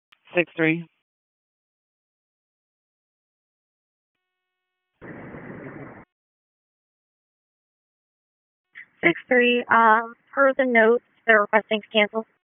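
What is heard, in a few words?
EMS Dispatch; wake; p25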